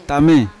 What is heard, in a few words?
"tame" name, Yawa